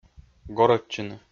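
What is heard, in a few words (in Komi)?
"горӧдчыны", Komi, male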